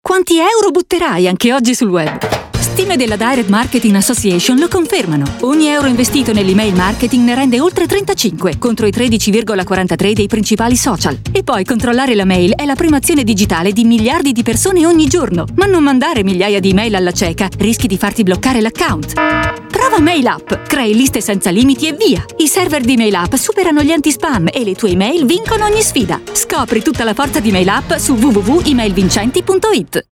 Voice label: Radio; MAIL-UP; Radio30